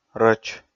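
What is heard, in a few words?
"рӧч", Komi, male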